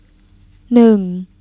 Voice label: Thai